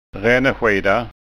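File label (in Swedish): "ranneskida", Skåne dialect Swedish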